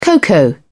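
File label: "cocoa", English, British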